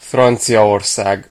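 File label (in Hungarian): "france", Hungarian; gcompris; geography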